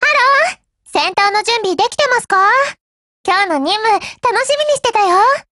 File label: HELLO JP; GF Alarm; M500